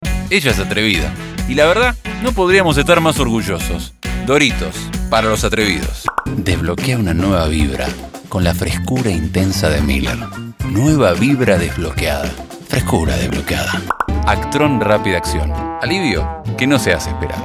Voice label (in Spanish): rioplatense